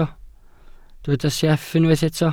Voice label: "sieff", Welsh